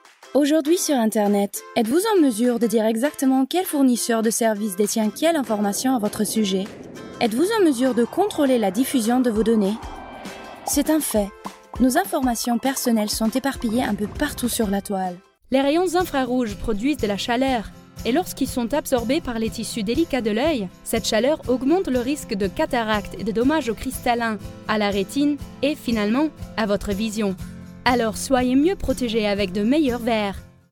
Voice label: French